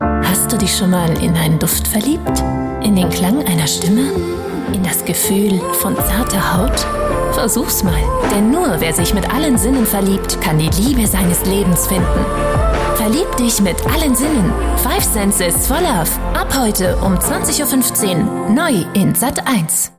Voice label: Female German